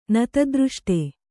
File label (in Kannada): "ನತದೃಷ್ಟ", Kannada